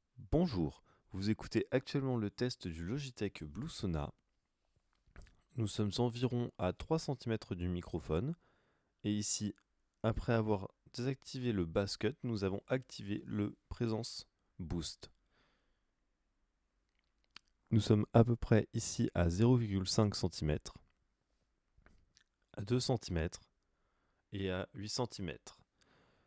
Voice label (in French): micro presence boost; logitech blue sona